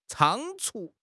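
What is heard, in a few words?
"仓促", Chinese; HSK6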